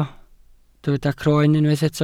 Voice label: "croen", welsh